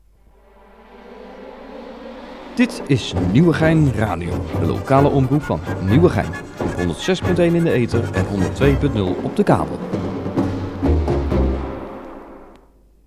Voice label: Stationcall